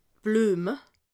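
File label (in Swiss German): "blueme", Alsatian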